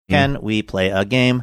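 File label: saying can we play a game singsongy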